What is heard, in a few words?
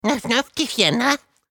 Professor Talk